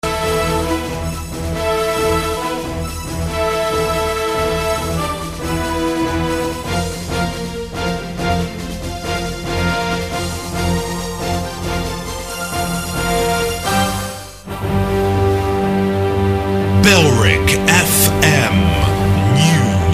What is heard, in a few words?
news long (pompous bed); bailrigg fm; bfm jingles ads RSL 1994